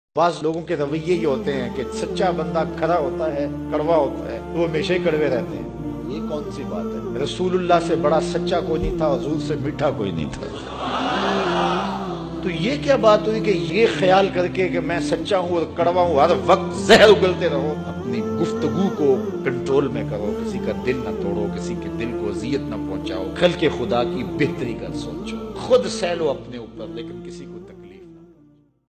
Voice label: Bayan Islamic